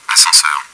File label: elevator, french; csco; csgo; bot